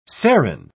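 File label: "serin", British English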